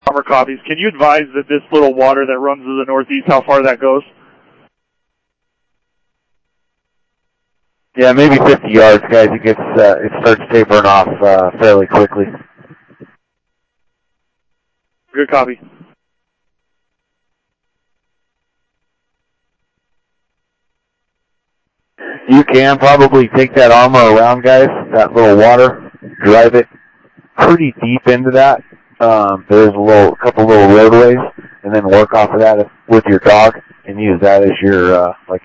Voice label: Interop Mutual Aid, scanner audio; ThompsonPeakHigh; G1 Interop

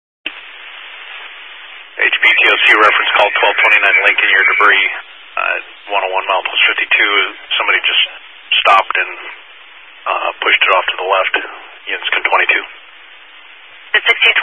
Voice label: Highway Patrol, scanner; DPS EAST; Dist 18 Phoenix Metro South Area

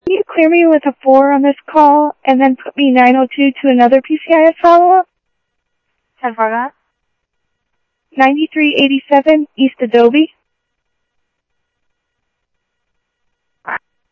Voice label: PD Police, scanner audio

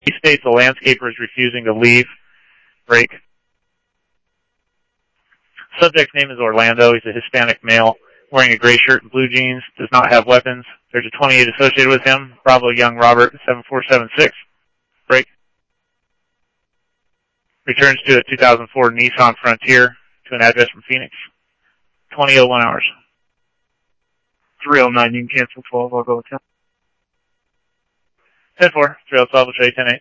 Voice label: Police, scanner audio

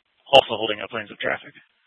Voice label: Scottsdale PD Sth Dispatch, scanner

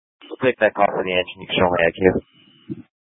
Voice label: Fire Dispatch; K8 - FDCOM 8 - South; H- Scottsdale

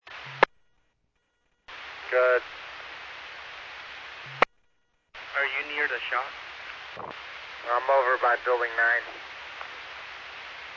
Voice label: Medevac, scanner; NativeAirAmb

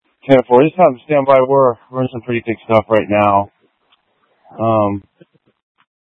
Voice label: Mutual Aid-Intersystem Interop B, scanner

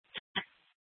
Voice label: Mesa PD Central Dispatch, Scanner

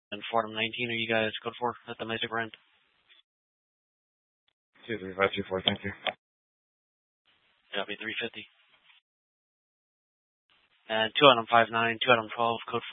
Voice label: Mesa PD Dispatch; TOPAZ Rgnl Wireless Co-op (TRWC); Fiesta

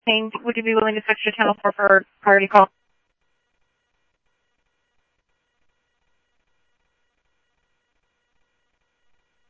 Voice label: Mesa Simulcast police; jumper

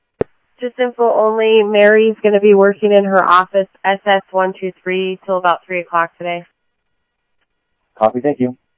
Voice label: Security, scanner audio

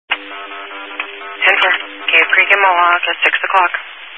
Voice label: Highway Patrol, scanner; DPS

